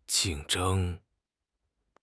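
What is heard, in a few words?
"jing4 zheng1", Mandarin Chinese; HSK; HSK4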